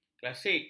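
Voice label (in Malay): "klasik", Malay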